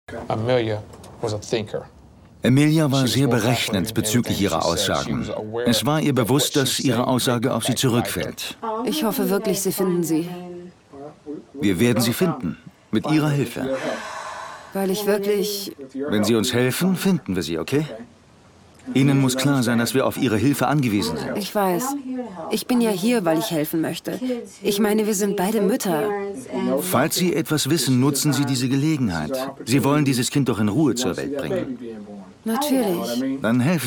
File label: voice over; LifeDeath Row